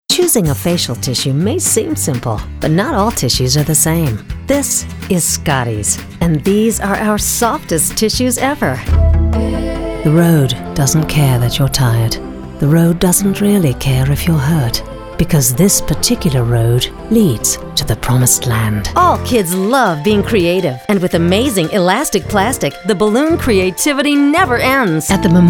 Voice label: Short Commercial VO